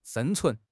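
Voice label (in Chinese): "生存", Chinese; HSK6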